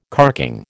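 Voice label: "carking", American English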